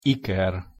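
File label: "iker", Hungarian pronunciation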